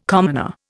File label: "commoner", Indian